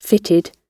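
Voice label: "fitted", British English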